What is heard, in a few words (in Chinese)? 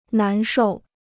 "难受", Chinese